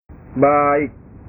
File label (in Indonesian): "baik", bahasa